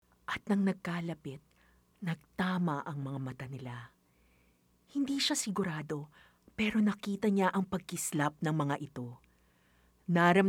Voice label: Tagalog (Dramatic, Emotional)